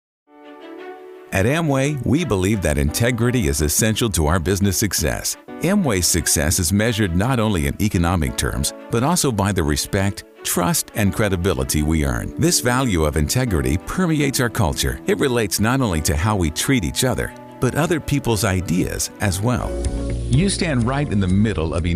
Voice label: Narration